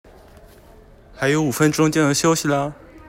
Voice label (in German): Chinesisch Noch 5 Minuten dann beginnt die Pause